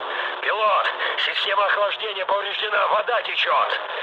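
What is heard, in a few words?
Russian damaged water mood low, speech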